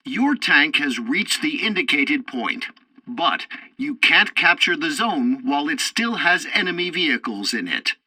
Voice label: tutorial tank arcade capture zone reached, english; War Thunder